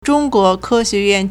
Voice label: "zhong1 guo2 ke1 xue2 yuan4", Chinese